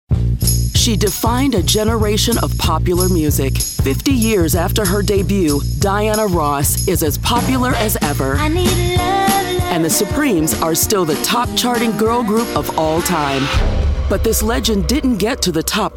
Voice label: TV Narration